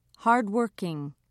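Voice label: "hardworking", British English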